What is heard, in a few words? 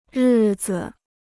"日子", Chinese